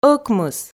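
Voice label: "ӧкмыс", Komi, female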